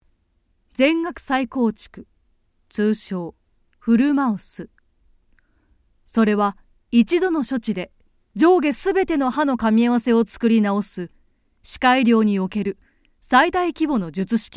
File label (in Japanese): 医療ナレーション